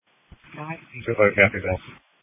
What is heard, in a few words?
Police Dispatch